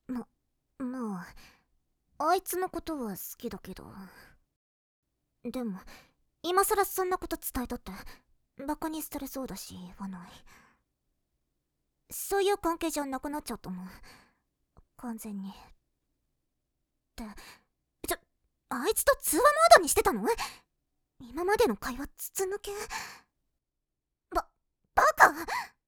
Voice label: ツンデレ

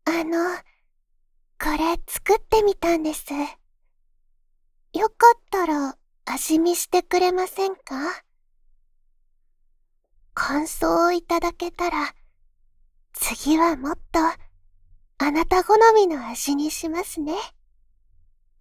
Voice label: 清楚系少女

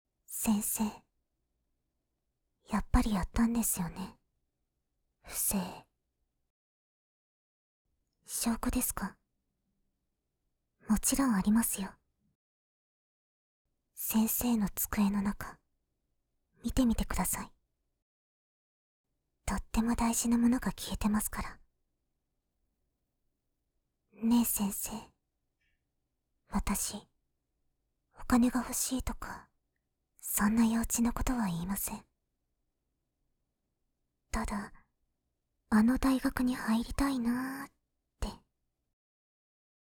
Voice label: 大人しい生徒

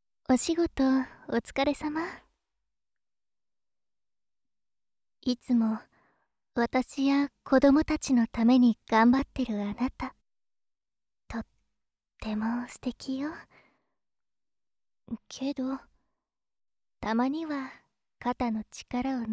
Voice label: 優しい奥さん; 落ち着いたお姉さん

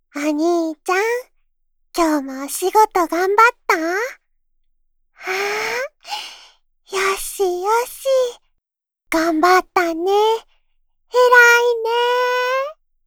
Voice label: 幼女; 可愛い幼女

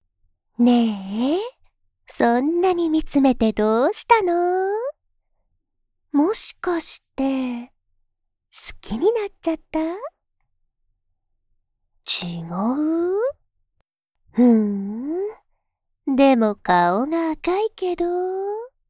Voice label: お姉さん

